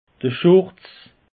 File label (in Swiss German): "schurz", alsace; peche